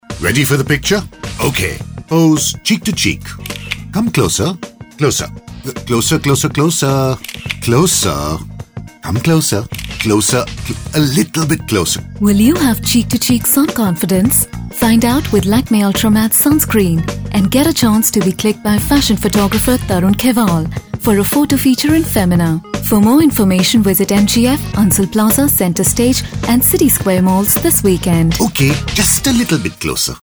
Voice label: English; Lakme; Ogilvy Mather